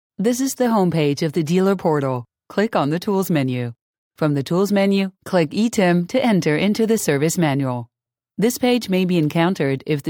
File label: English Elearning